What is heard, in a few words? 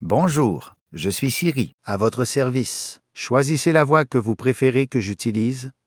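Canadian French; iOS 26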